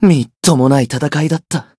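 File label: Voice win barely, Japanese; nero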